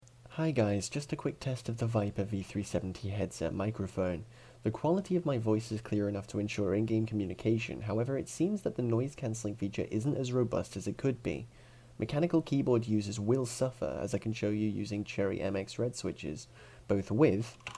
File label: Headset Microphone Test; patriot viper v370 rgb 7.1 surround sound headset; short recording to showcase its general quality; 4 effects offered within the software; KitGuru